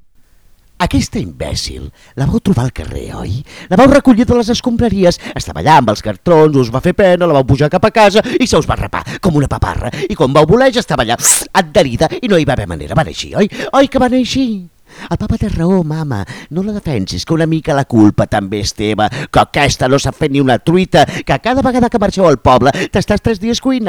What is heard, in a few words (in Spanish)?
Audio Interpretacion en Catalan